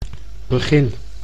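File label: "begin", Dutch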